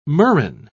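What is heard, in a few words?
"murrain", British English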